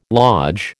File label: "lodge", American